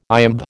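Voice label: "iamb", American English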